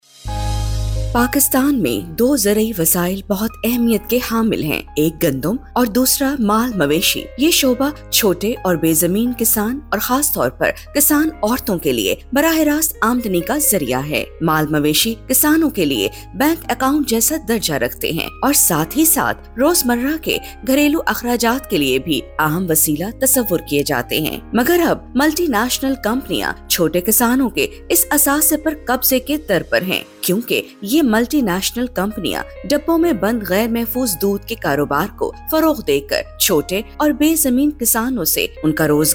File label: Radio Message